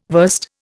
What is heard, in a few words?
"verst", Indian